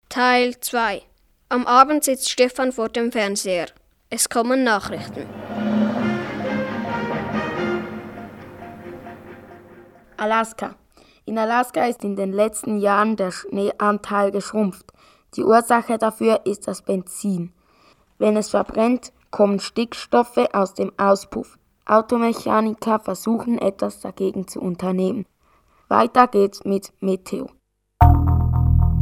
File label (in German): Hoerspiel; Minutengeschichten